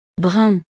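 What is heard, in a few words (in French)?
"brun", French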